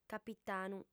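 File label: "capitanu", female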